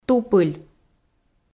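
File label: "тупыль", Komi, female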